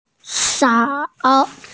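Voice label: "sao3", Mandarin Chinese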